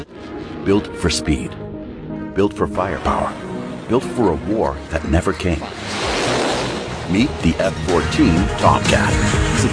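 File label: Military Narration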